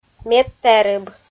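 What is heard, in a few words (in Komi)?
"медтэрыб", Komi-Zyrian, female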